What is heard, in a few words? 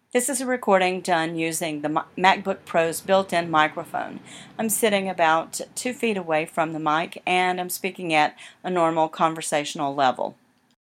mic speaking mbp mic; samson meteor